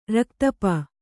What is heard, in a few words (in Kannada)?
"ರಕ್ತಪ", Kannada; raktapa; noun; a bloodsucker.